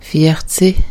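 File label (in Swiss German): "viarzeh", Alsatian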